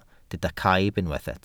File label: "caib", Welsh